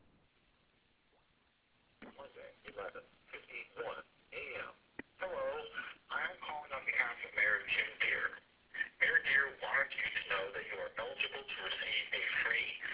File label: Robo Call